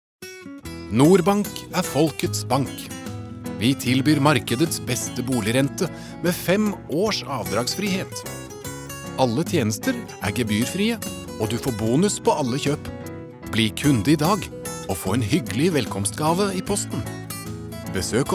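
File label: Norwegian commercial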